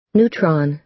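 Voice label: "neutron", American English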